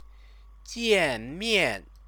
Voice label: "jiàn miàn", Chinese; 见 面; meet verb-object